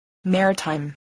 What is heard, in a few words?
"maritime", spelling voice